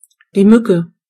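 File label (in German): "mosquito", German; gcompris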